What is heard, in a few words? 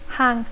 "hang", English; verb tr conj (hung/hung); penjar